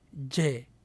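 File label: "dzhe", syllable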